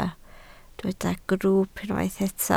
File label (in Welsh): "grwwp" Welsh